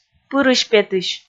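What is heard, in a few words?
"пырысь-петысь", Komi, female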